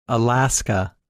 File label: "Alaska", English Pronunciation